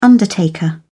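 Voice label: "undertaker", British English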